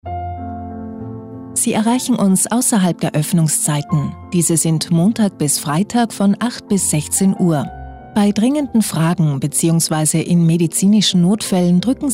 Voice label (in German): telefon arzt; Muttersprache Deutsch